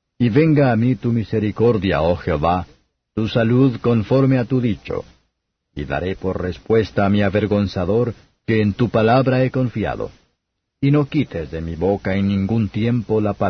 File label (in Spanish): salmos spanish; 119; vav